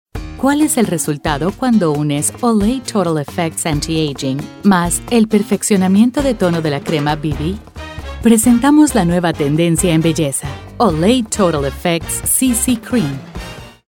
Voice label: Friendly